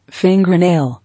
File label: "Fingernail", English